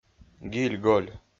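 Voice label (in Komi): "гиль-голь", Komi-Zyrian, male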